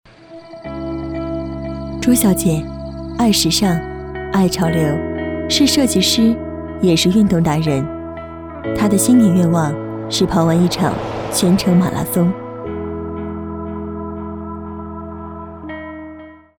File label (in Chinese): 女 宣传片类; 碧桂园宣传片